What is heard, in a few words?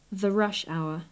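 the rush hour, British English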